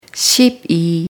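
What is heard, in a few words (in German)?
"zwoelf", German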